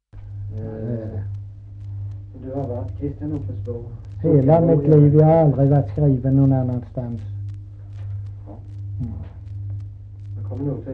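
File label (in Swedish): Kristianopel, Swedish dialect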